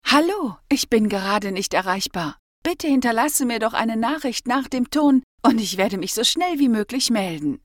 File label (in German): ich ansage, anrufbeantworter